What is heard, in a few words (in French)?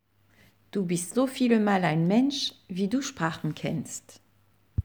Allemand traduction phrase